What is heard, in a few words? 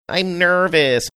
saying i nervous funny